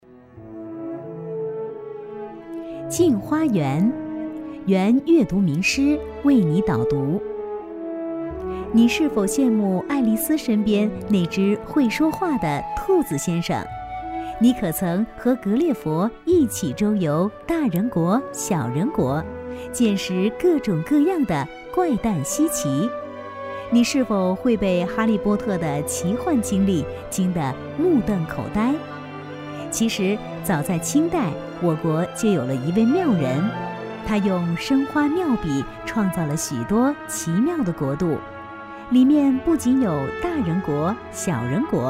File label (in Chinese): 女 专题; 镜花缘成品